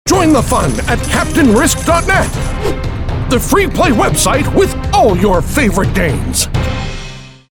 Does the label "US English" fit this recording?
Yes